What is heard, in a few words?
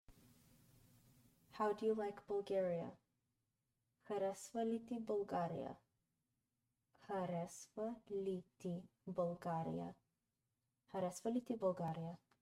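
How do you like Bulgaria, Bulgarian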